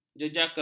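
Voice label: "jejaka", Malay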